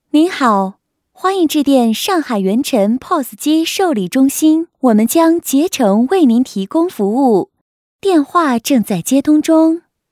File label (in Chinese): 女 彩铃, 国语女声配音; 上海圆辰pos